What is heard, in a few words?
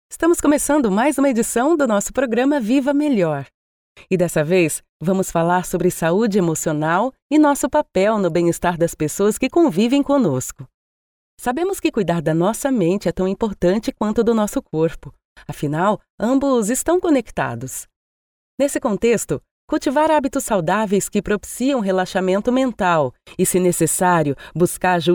portuguese brazilian podcasts